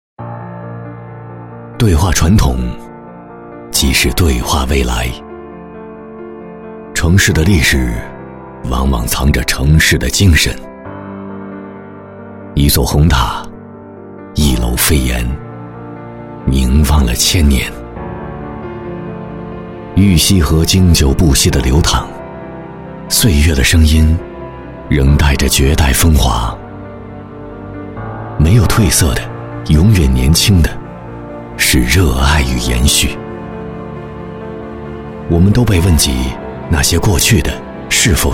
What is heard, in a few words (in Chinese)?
专题讲述内敛; 玉溪富康生态智慧城城市篇